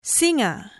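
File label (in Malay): "singa", Malay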